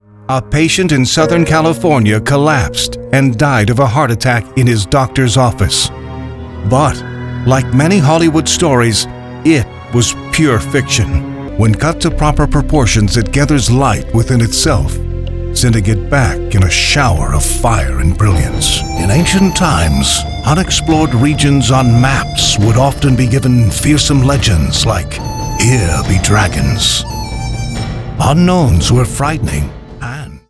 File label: Documentary